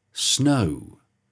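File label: "snow", English pronunciation; Spellings – /əʊ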